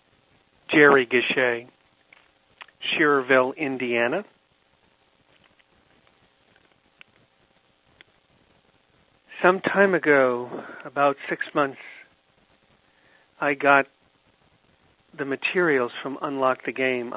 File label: Testimonial